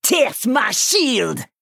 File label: Female Dwarf Attack